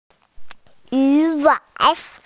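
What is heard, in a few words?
"yu wang" Chinese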